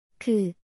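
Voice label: "그", Korean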